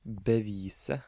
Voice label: "Bevise", Norwegian

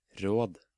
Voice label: "Råd", Norwegian

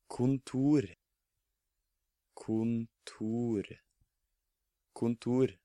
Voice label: "Kontor", Norwegian

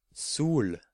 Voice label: "Sol", Norwegian

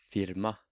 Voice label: "Firma", Norwegian

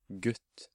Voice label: "Gutt", Norwegian

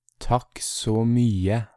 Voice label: "Takk så mye", Norwegian

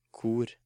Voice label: "Kor", Norwegian

